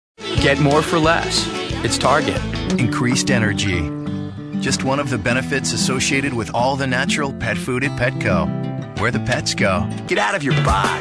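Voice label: commercial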